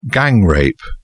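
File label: "gang-rape", English, British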